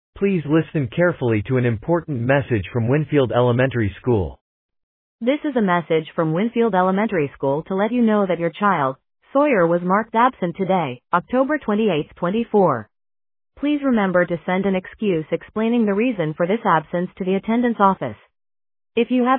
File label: Voicemail